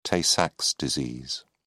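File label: "tay-sachs disease", British English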